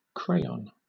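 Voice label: "crayon", British English; pronunciations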